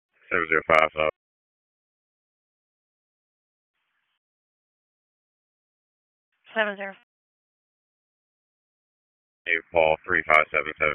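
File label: Wake Forest Police Dispatch, p25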